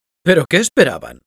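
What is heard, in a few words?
cheers, Spanish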